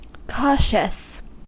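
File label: "cautious", US English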